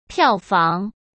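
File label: "票房", Mandarin Chinese; chinese flashcards; hsk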